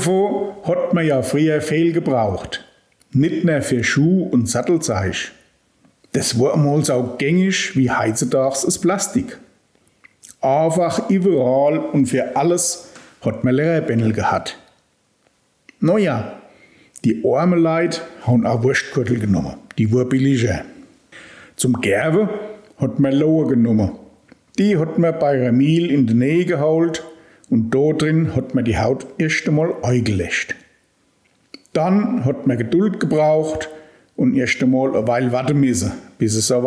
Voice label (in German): Mundart Gerberei